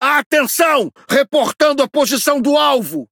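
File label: priority target, Brazilian Portuguese; World Of Warships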